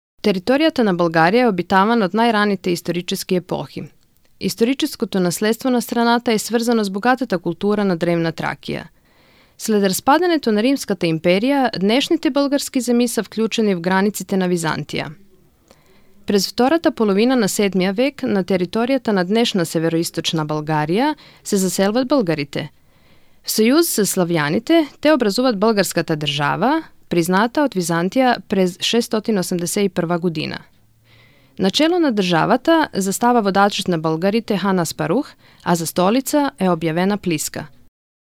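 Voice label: Bulgarian female voice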